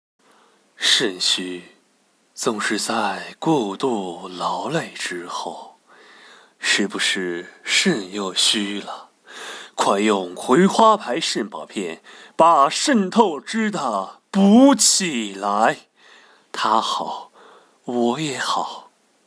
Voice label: 广告

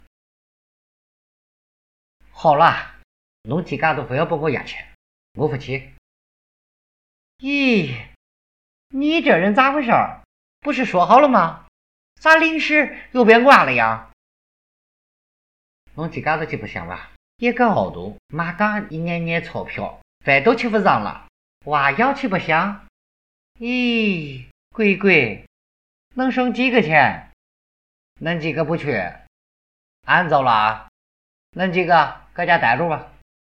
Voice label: 上海方言和河南方言

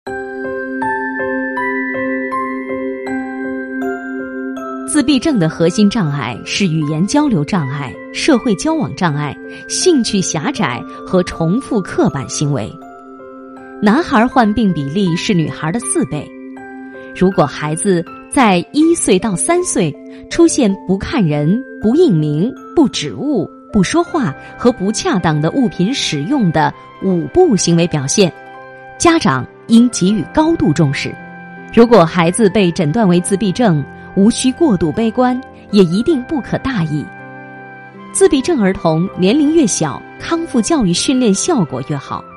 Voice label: 科普宣传; 自闭症科普宣传带

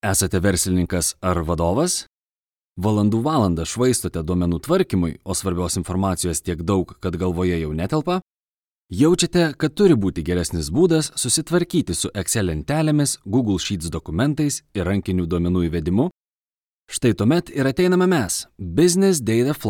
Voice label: 立陶宛语男

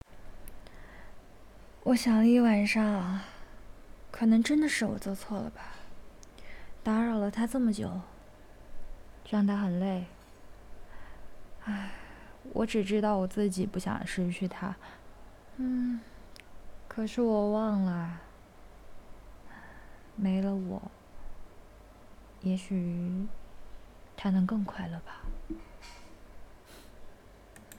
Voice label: 情感台词

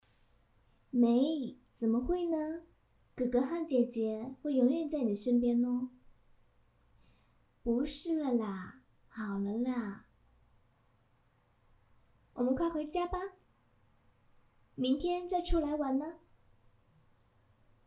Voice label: 模仿台湾女腔

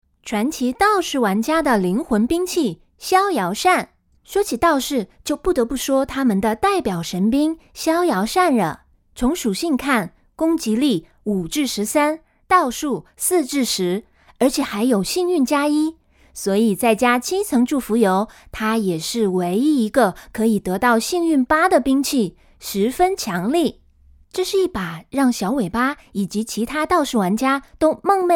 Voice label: 台湾腔妹纸游戏解说

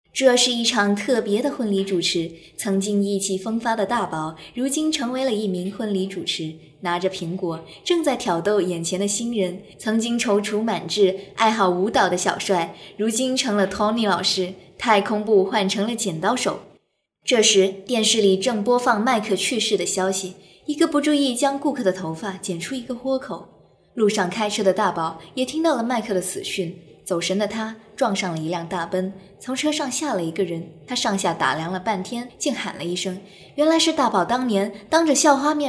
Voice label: 电影 解说; 星星女

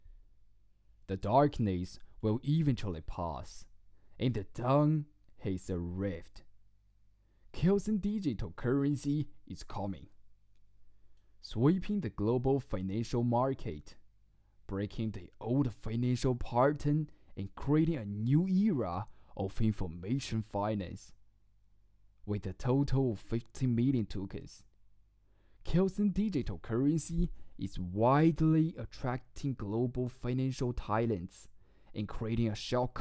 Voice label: 英文

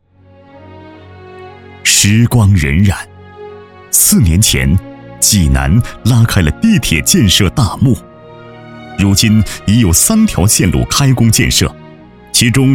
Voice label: 高端男汇报地铁磁性有感情

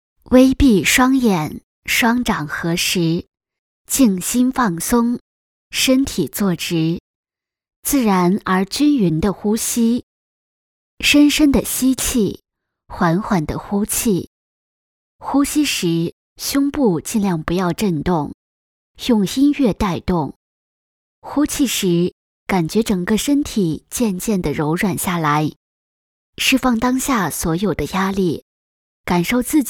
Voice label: 宣传

